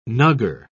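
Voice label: "nuggar", British English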